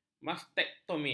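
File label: "mastektomi", Malay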